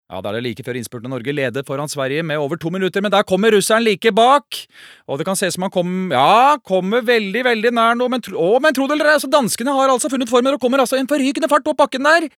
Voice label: no dub commentator

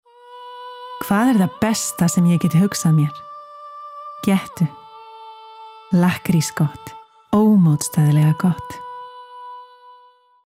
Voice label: Icelandic commercial, female

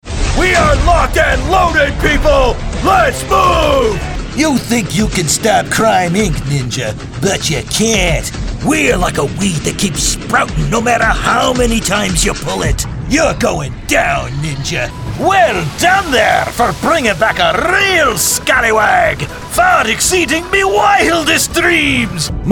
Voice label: us animation

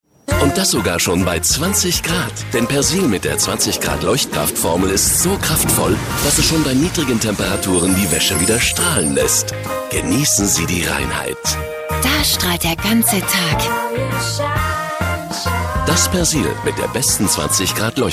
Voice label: de commercial

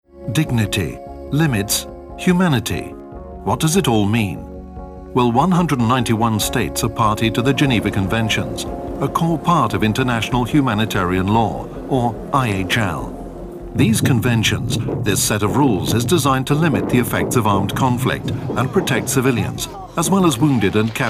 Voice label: uk informative

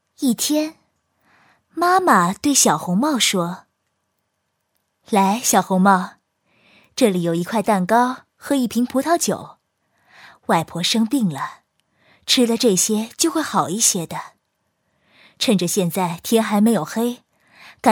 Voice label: cn childrens book, kinesisk-mandarin

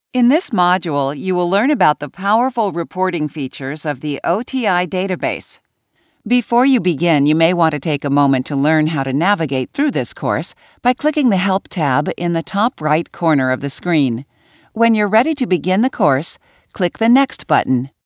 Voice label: us elearning

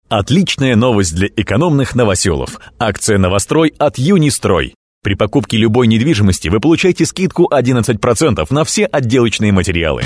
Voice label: ru commercial

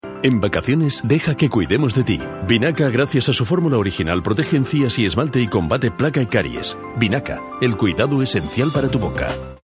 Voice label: es commercial

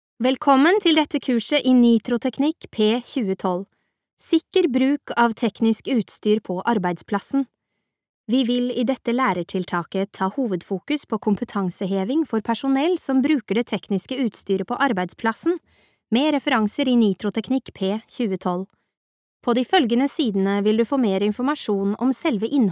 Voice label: no elearning

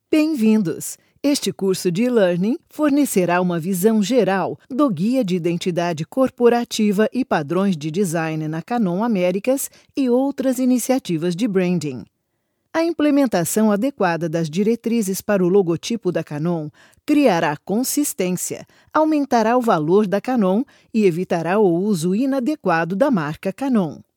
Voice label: br portuguese elearning

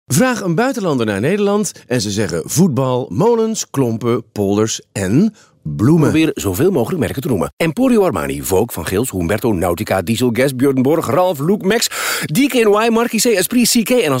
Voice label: nl enthusiastic

